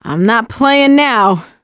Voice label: incend ammo, voice; survivor; producer

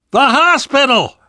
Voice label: world hospital, namvet, survivor voice

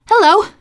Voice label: scenario join, teen girl; player; survivor; voice

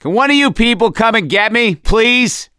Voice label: call for rescue, survivor voice; gambler

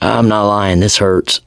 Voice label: going to die, survivor voice mechanic

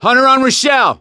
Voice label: hunter pounced, survivor voice; Left 4 Dead 2; gambler

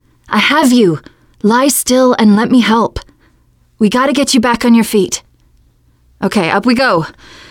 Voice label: revive friend, teen girl

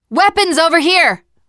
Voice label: spot weapons, teengirl, voice; player; survivor

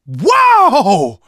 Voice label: violence awe, survivor voice manager; Left 4 Dead 2; left4dead2 dlc3

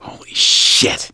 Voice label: reaction negative, voice; gambler

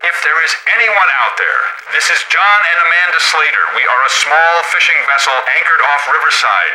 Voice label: radio initial broadcast

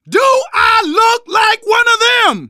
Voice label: friendly fire, manager, survivor, voice

